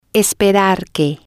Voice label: esperar que, Spanish

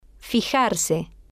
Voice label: "fijarse", Spanish

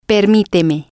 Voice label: permíteme, Spanish

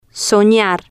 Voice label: "soñar con" Spanish

